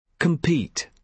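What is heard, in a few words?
"compete", British English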